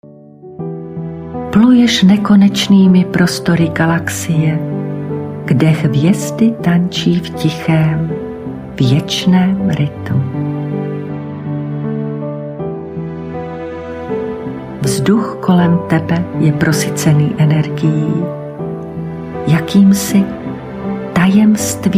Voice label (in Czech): Ukazka Meditace na splneni prani